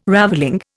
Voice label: "ravelling", Indian